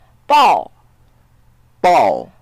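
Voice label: "bao4", Mandarin Chinese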